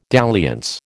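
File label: "dalliance", American English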